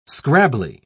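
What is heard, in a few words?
"scrabbly", British English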